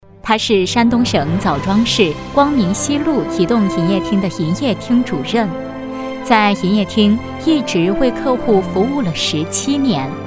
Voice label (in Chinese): 女 人物 移动营业厅服务之星